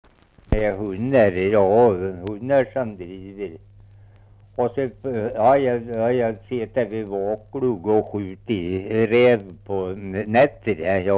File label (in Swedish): dialekter; Folkminnen